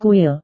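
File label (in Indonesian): "kuil", Indonesian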